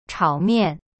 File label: "炒面 chao mian", Chinese; cyberchinese